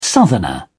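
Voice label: "southerner", English, British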